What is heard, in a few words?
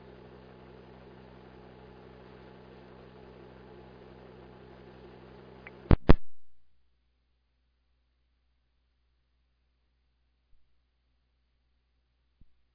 Ambulance, dispatch